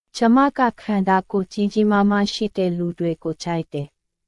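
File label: Myanmar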